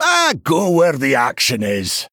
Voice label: Male Dwarf Bark; Brann Bronzebeard